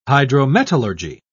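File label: "hydrometallurgy", British English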